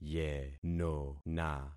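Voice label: "yenona", concatenated syllables; Affixing experiment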